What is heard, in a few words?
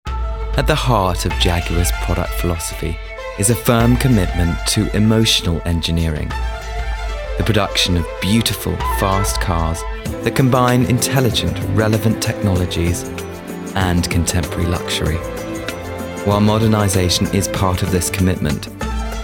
languages men english narration